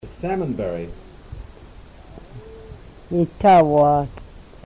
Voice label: salmonberry, Twana